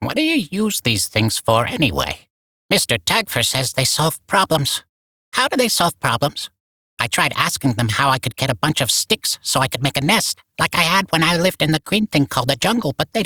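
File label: Barks Bird, English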